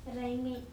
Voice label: "rENe", Andamanese; pinch

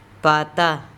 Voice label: "pata", andamanese

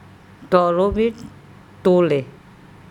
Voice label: "TOlobik Tole", Andamanese; pluck the flowers

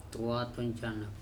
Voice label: "toa tuN canap", andamanese; scorpion shell

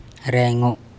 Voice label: "rEngo", andamanese

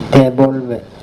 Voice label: "tEbolbe", andamanese

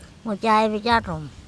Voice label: "ngo caybi caTom", andamanese; what are you doing

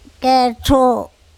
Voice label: kETHo prawn, andamanese

